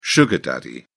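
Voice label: "sugar daddy", British English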